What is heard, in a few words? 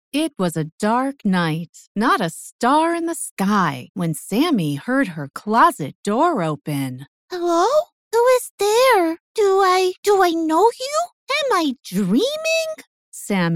Childrens Book